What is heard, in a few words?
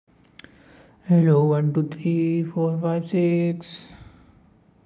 voice message